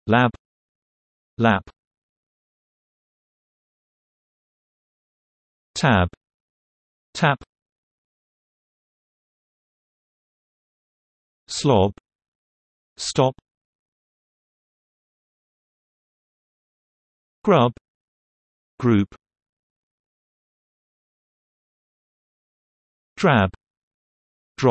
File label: b, UK; der buchstabe b